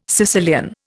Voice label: "Sicilian", Indian

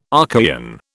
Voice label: "Archaean", British

